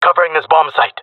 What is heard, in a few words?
guarding bombsite, jungle male; player vo; Counter Strike Model Pack; CS:GO